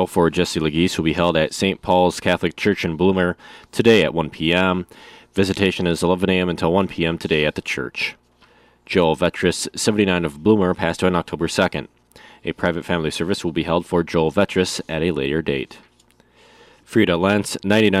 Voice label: Funeral Announcements